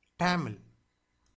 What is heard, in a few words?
"tamil", Tamil